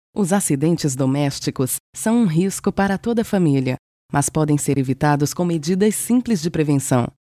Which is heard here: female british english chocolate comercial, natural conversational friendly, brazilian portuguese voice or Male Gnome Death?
brazilian portuguese voice